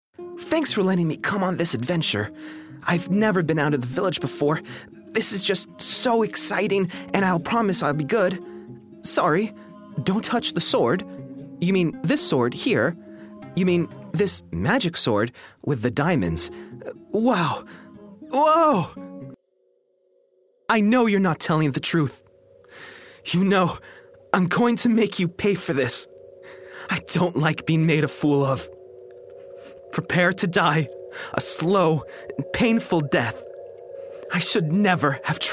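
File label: character, USA, male; narrator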